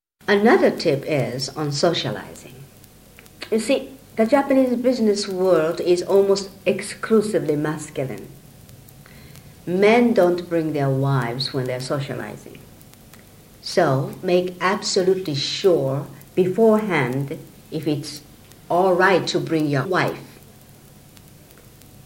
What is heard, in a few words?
conversation